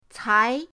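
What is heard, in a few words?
"cai", Mandarin pinyin, second tone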